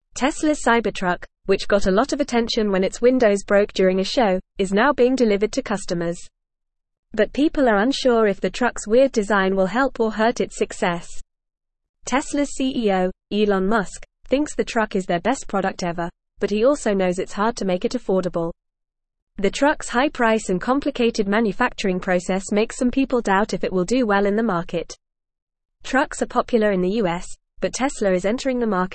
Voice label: Upper Intermediate FAST Reading, English; English Newsroom; Teslas Cybertruck Challenges and Potential for Succ